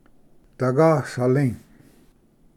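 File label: "dagasali", Cherokee; ᏓᎦᏌᎵ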